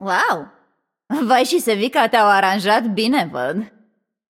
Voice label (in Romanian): Room2 VO Puzzle View, vo, ro-ro